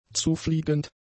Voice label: "zufliegend", German; adjectives